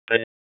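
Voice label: Police Dispatch; wake; p25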